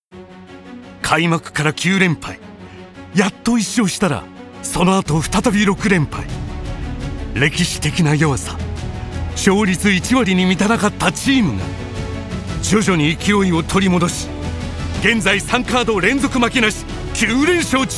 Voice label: japanese movie trailers

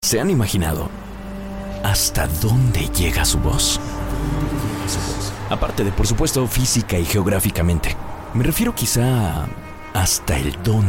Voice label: spanish mexican commercial

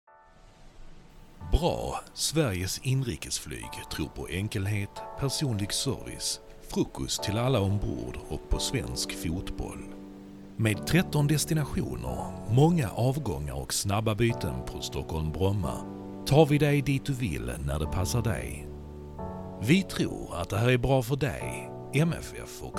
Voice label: swedish

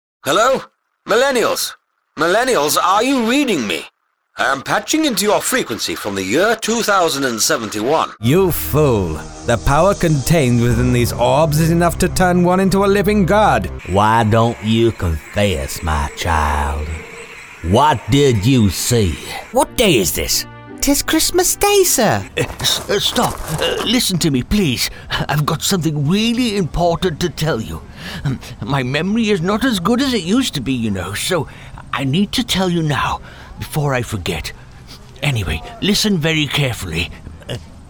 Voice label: english british animation

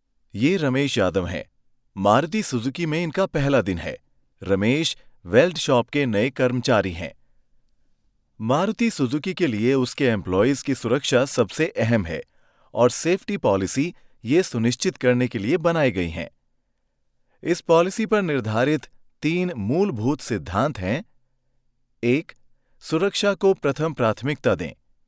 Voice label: hindi training e-learning

